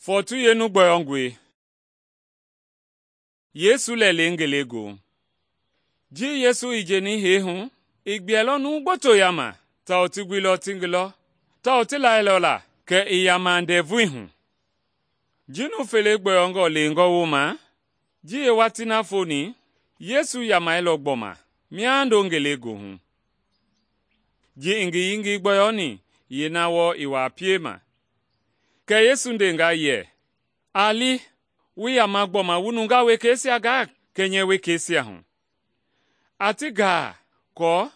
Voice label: mende bsl nt drama; good news mende kpa